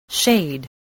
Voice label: "shade", spelling